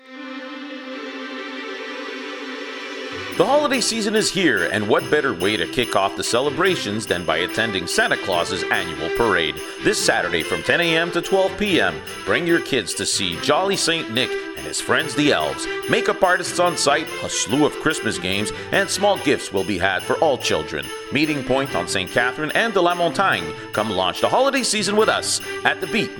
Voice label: Anglo, voix